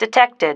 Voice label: "detected", female

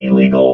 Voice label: "illegal", robot

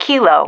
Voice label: "kilo", female

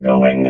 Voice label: "going", robot

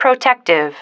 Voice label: "protective", female

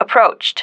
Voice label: "approached", female

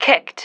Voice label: "kicked", female

